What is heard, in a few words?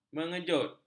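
"mengejut", Malay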